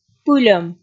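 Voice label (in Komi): "пыльӧм", Komi, female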